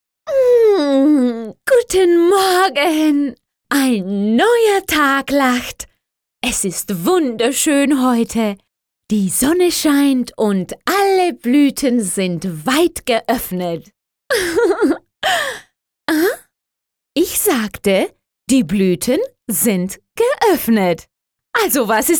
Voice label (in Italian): tedesco dinamica